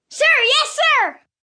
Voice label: Response "Yes Sir", 1 boy, 8 yrs.; Talking; Voice Box